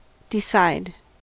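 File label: "decide", US English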